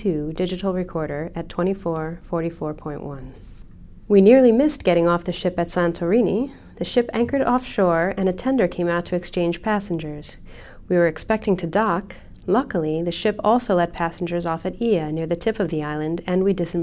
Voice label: Sennheiser MKH50 left Sanken COS11 right female, microphones